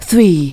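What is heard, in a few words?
"three", spoken, female; Techno Trance Essentials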